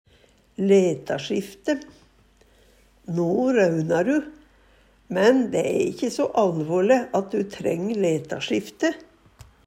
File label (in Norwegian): "letasjifte", Numedalsmål; Verb